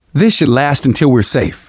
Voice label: heal other, manager, survivor, voice; Left 4 Dead 2; left4dead2 dlc2